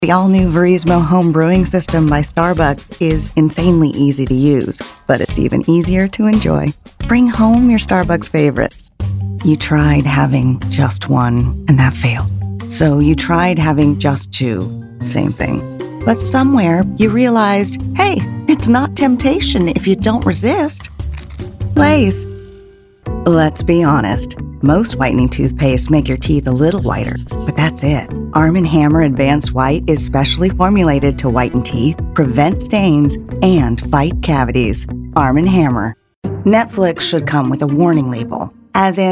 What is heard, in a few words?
Commercial